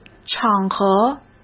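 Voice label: "场合", Mandarin Chinese; chinese flashcards; hsk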